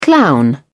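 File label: "clown", English, British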